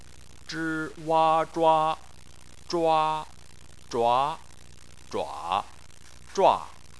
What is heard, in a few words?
"zhua", Chinese